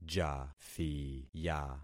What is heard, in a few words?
"jathiya", concat syllables; Affixing experiment